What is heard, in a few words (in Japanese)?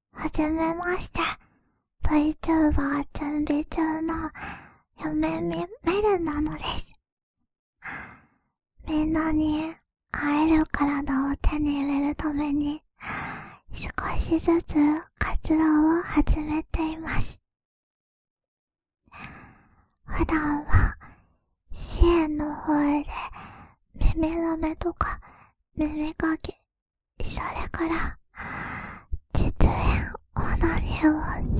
声優フリートーク